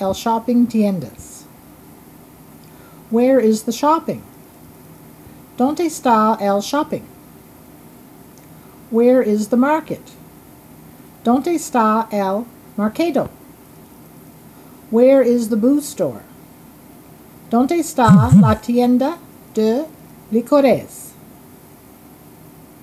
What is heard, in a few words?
Spanish travel phrases